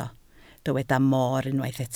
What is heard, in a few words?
"moor", Welsh